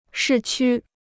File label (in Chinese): "市区", Chinese